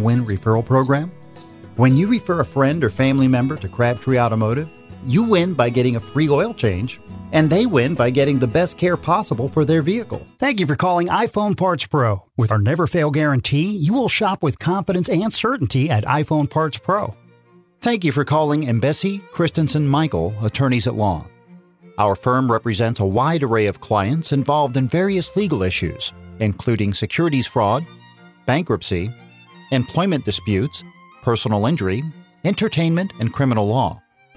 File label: Telephone On Hold IVR